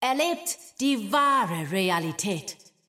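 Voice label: German; 秩序之光（守望先锋）; 终极技能; 德语; Erlebt die wahre Realität!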